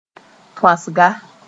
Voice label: "tõasga", Mooré; picking

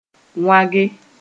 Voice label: "wãge", Mooré

